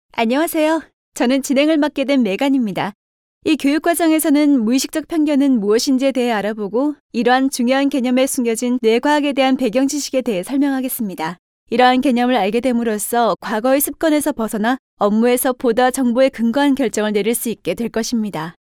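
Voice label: Korean, female, narration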